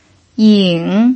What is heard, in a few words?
"ying3", pinyin